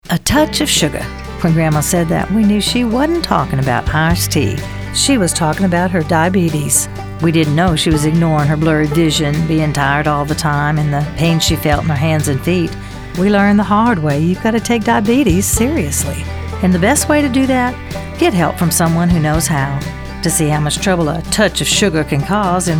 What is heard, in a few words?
spot southern